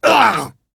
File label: Death, en, Dialog Barks Battle; Warframe; Chipper